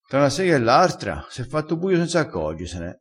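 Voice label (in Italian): "fra na sega", vocabolario dialettale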